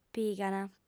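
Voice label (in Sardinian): "pigant", female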